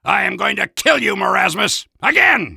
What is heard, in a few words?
Soldier taunts